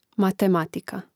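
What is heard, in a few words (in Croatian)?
"matematika", Croatian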